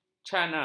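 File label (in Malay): "canar", Malay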